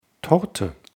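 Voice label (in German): "torte", German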